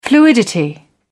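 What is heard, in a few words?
"fluidity", British English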